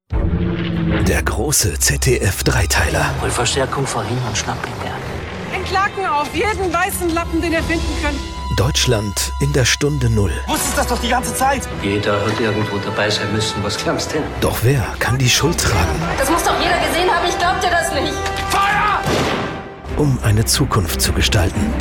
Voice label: Trailer Voice Cast Kommentar; ZDF

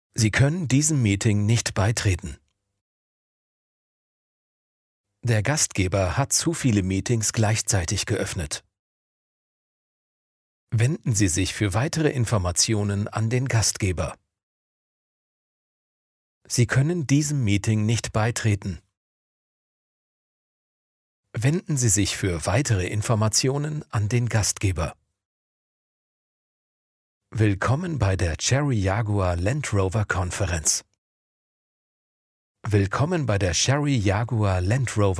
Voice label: Tel Prompts sachlich informativ

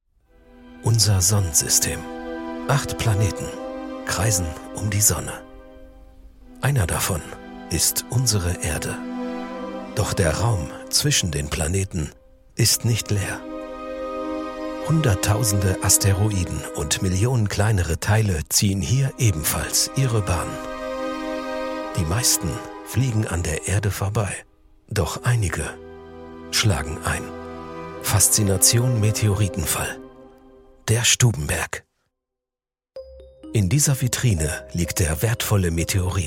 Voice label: Doku mystisch; Der Stubenberg

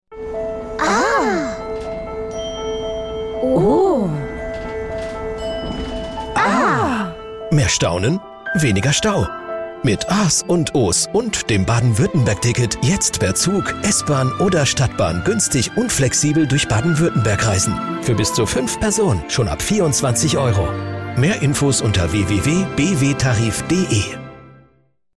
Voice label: Funk freundlich emotional; DB Regio BW

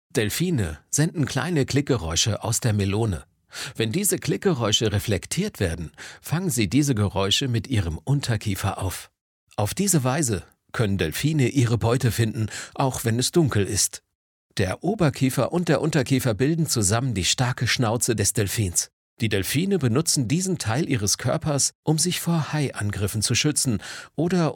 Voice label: Kids grecht; Kids Museum Bern Delfine

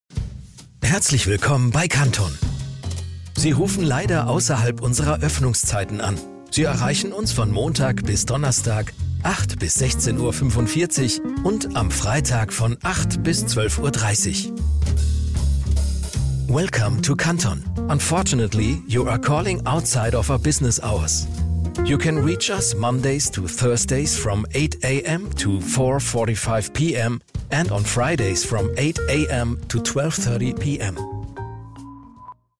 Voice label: nett klassisch; Canton

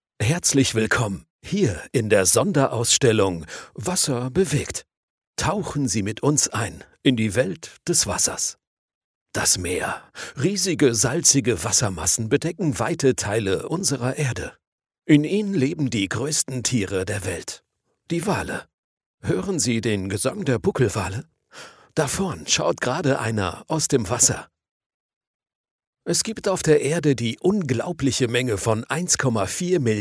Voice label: Guided Tour erklarend sachlich; Wasser

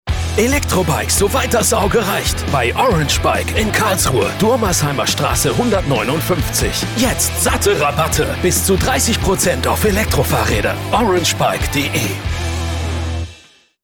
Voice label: Funk Regionale Promo laut; Orange Bike

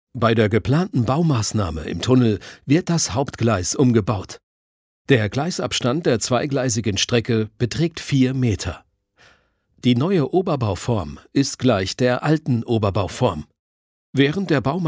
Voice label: E-Learning sachlich klassisch

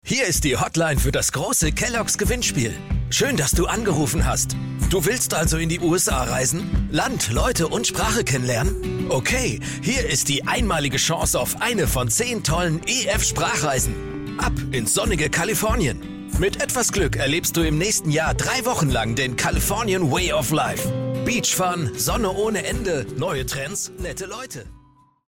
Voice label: jung adventure; EF Sprachreisen

